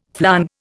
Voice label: "flong", Indian